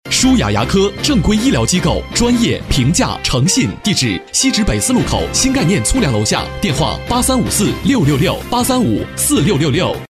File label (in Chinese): 促销 广告配音; 舒雅牙科